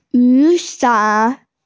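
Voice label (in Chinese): "yu3san3", Mandarin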